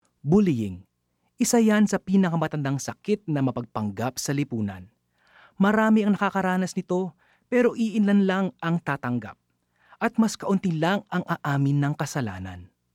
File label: Tagalog, Clear, Smart